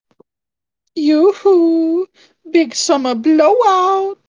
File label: voice message